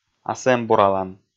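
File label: "асэмбуралан", Komi, male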